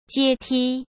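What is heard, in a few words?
"阶梯", Mandarin Chinese; hsk; chinese flashcards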